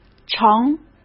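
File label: "成", Mandarin Chinese; chinese-flashcards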